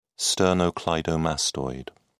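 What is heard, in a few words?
"sternocleidomastoid", British English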